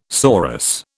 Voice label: "sorus", British